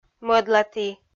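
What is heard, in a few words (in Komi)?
"мӧдлаті", Komi, female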